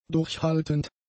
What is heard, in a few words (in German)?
"durchhaltend", German; adjectives